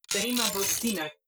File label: robot human female greetings; Stellaris; synthetic dawn